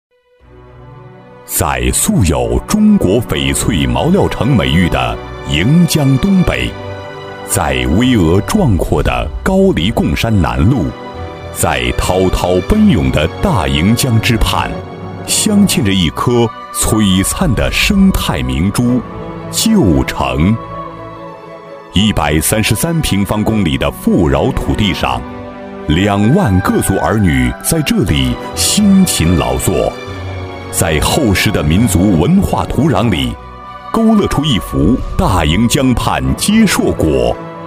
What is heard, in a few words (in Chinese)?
男 专题 城市专题